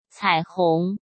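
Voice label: "彩虹", Mandarin Chinese; chinese flashcards; hsk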